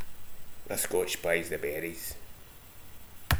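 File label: "the berries", slang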